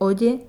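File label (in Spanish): "oye", Spanish, Madrid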